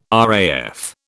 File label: "RAF", British